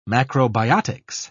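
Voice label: "macrobiotics", British English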